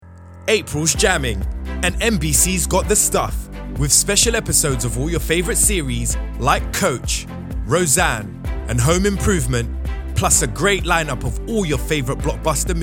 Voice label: Promo